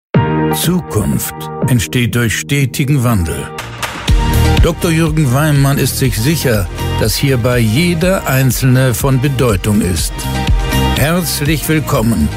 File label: Podcast Intro; Everyone Counts Podcast; mit der Jack Nicholson Stimme